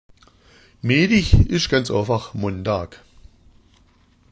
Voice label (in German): "meedich", schwaebisch